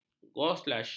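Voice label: "goulash", Malay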